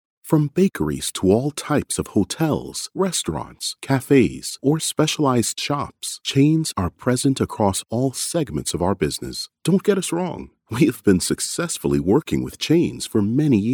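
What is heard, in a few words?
English Canadian Male Casual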